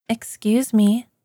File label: excuse me, voice ringtones